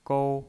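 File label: "gou", Mandarin Chinese, first tone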